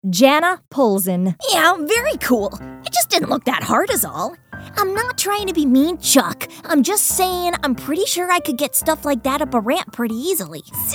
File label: Animation